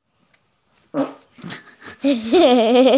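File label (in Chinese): "zhi4yu4", Mandarin Chinese, female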